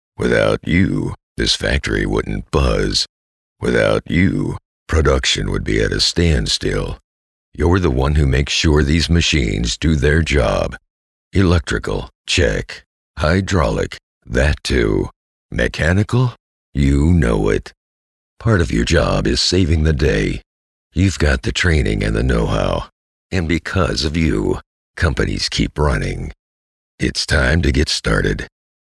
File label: NARRATION; LBI